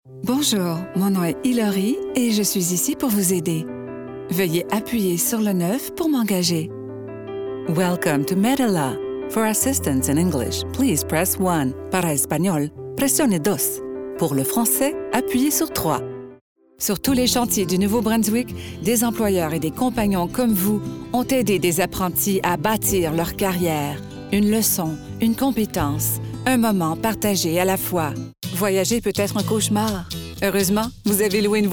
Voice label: french canadian video games